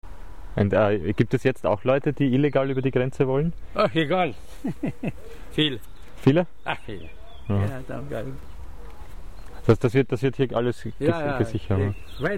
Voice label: talk to a guy in his garden; he has lived at the border for over thirty years; bordersounds